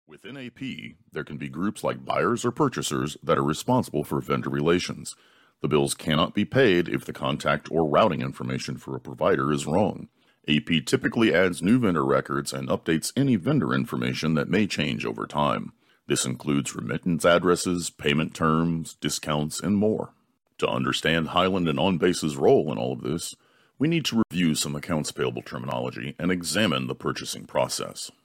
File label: Training Narration